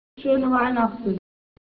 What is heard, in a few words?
German, christmas